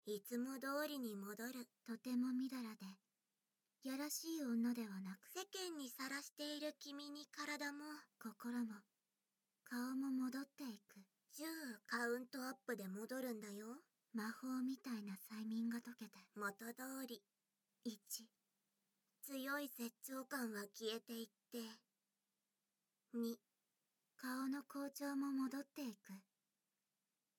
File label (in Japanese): 催眠解除, ASMR